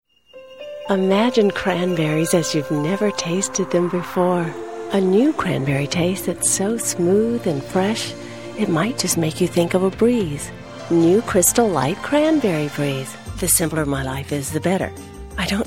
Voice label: Women Commercial